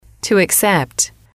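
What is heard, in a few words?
"to accept", English, female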